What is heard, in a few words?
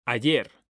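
"ayer", Spanish, male; mekanta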